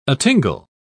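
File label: "atingle", English, uk British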